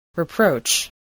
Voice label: "reproach", English

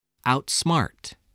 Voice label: "outsmart", English voice

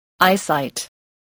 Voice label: "eyesight", voice

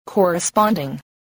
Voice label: "corresponding", voice spelling

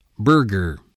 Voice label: "burger", spelling

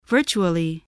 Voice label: "virtually", English

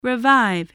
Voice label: "revive", English

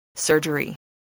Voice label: "surgery", English

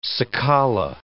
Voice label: "cicala", English

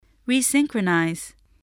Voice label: "resynchronise", English

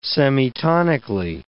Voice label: "semitonically", English

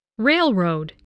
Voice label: "railroad", english

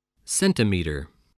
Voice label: "centimeter", English, voice

